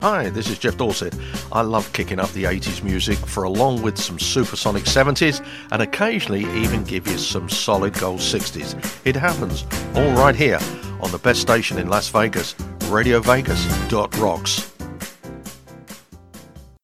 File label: show promos with music tag; GEOFF DORSETT SHOW